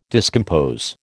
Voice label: "discompose", American

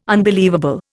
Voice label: "unbelievable", Indian

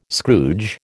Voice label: "Scrooge", American

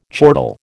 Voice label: "chortle", American

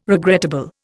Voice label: "regrettable", Indian

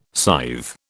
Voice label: "scythe", British

